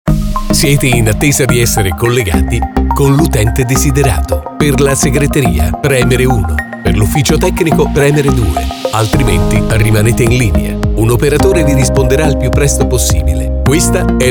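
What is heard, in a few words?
interactive voice response musica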